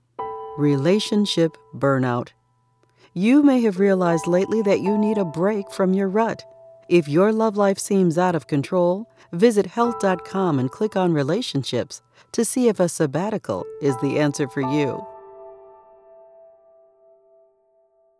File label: Promo